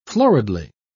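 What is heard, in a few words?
"floridly", British English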